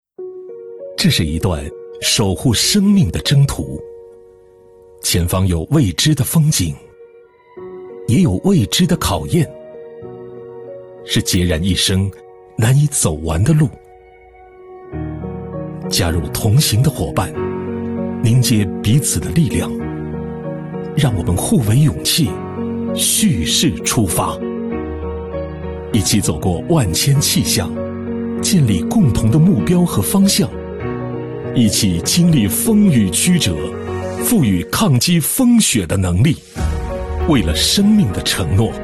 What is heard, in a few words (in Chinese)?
企业宣传片